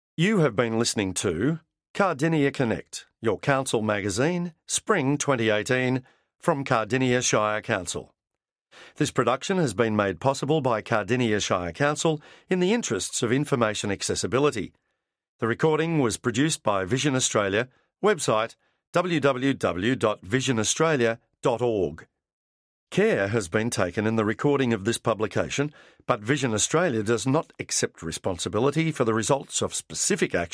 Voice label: closing announcement